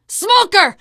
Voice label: warn smoker, teengirl; voice; source engine; left 4 dead 2; survivor